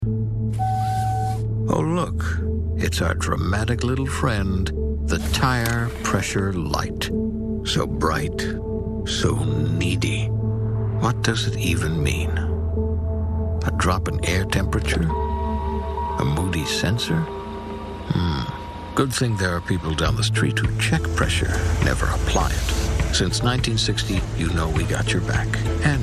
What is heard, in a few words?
Male Elder Narrator